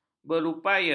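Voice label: "berupaya", Malay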